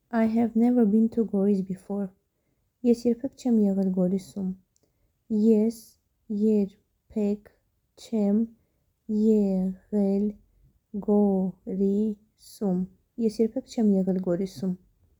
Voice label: I have never been to Goris before Armenian Es erbek chem eghel Gorisum